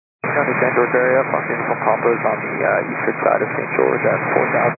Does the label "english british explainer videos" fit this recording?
No